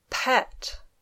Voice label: "pet", English

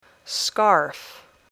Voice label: "scarf", English

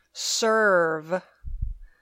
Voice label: "serve", English

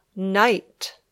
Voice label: "night", English

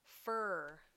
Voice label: "fur", English

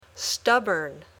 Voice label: "stubborn", English

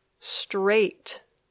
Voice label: "straight", English

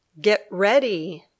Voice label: "get ready", English

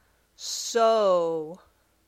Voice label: "sew", English

